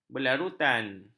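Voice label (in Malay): "berlarutan", Malay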